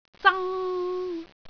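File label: "zang1", Cantonese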